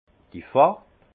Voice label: "farb", alsace; vin; couleur

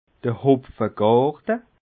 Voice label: "hopfegarte", alsace

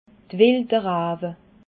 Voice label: "rawe", alsace

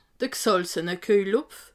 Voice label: "gsalzene kuejelhopf", alsace

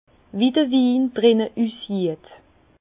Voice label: "win usseht", Bas Rhin; vin; aspect du vin

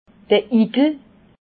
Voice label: "igel", alsace

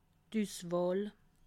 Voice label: "uswahl", alsace

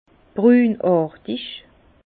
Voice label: "brunartig", Bas Rhin; vin

